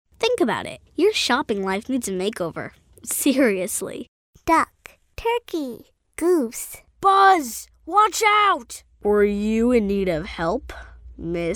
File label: character; Voice Over for Animation and Cartoon Characters